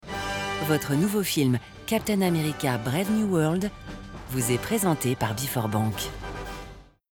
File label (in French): IDENT, voix-off; BforBank